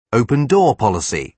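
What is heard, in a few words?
"open-door policy", British English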